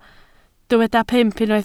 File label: "pump", Welsh